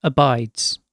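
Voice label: "abides", British English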